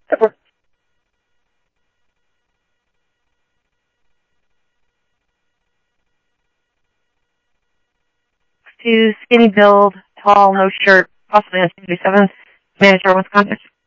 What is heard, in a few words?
PD Police, scanner audio